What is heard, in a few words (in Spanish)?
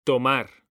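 "tomar", Spanish, male; mekanta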